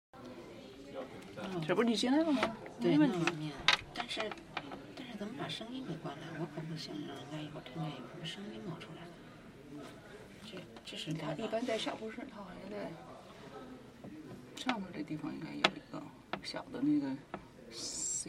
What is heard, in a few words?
zh; losangeles2014